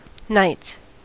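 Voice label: "knights", American English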